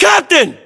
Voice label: captain, battlechatter, english, us

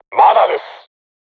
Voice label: voice message art reloading, crew tanks japanese

